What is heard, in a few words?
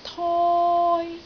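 "toi1", Cantonese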